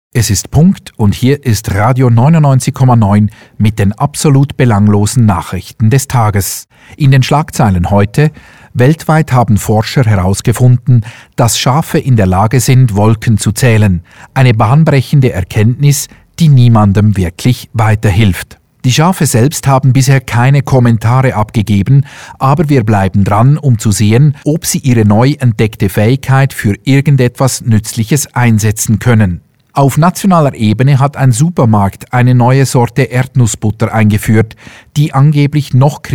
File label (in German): stimme news fun